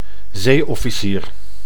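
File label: "zeeoficier", Dutch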